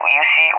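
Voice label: "Uzi", English, SPAIN; CallSign; DCS; Allied Flight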